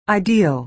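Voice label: "ideal", American English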